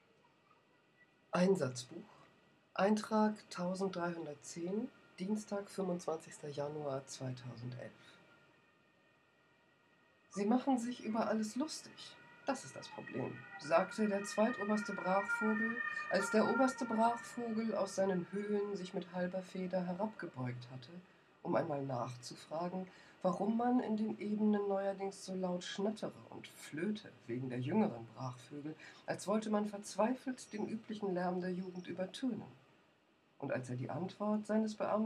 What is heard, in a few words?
Female Voice